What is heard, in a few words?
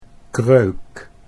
"Kreuk", Dutch